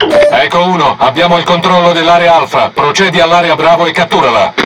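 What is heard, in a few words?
It Speech Tutorial Task, Italian; World Of Warships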